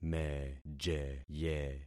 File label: "mejeye", concat syllables; Affixing experiment